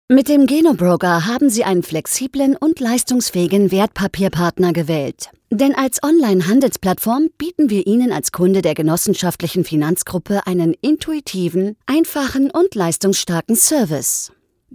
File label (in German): erklarfilm; geno broker